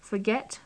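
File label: "forget", British English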